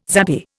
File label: "zappy", Indian